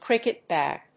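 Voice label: "cricket bag", English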